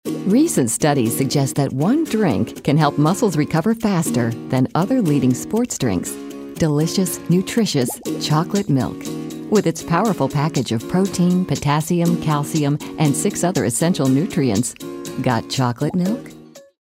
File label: friendly